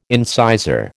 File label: "incisor", American